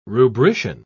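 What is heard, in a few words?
"rubrician", English, British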